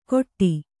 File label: "ಖೊಟ್ಟಿ", Kannada